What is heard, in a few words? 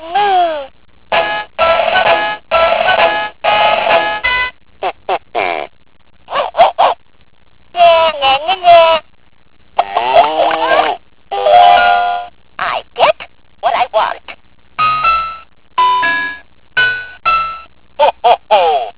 Talking Book